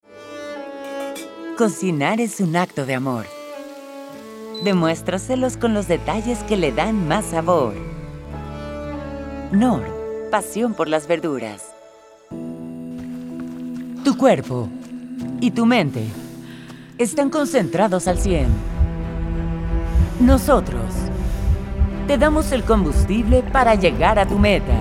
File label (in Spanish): COMERCIAL; voiceover demo